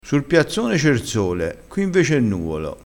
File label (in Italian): "nuvolo", dialettale